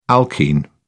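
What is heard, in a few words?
"alkene", British English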